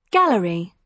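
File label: "gallery", British English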